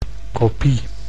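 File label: "kopie", Dutch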